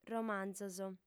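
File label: "romanzos", female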